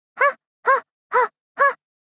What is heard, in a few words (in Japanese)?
はっ！ はっ！！ はっ！ はっ！！少女×中音域